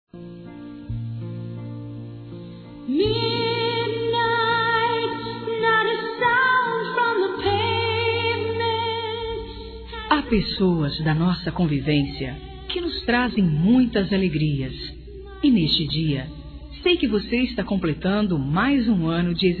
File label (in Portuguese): ANIV. SOBRINHA F; Aniversários; Aniversários diversos; fem